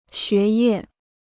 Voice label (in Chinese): "学业", Chinese